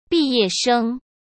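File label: "毕业生", Mandarin Chinese; hsk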